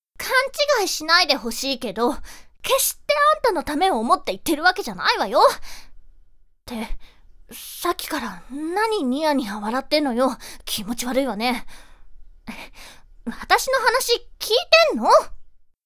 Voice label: voice girl